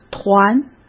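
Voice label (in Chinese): "团", Mandarin Chinese; hsk; chinese flashcards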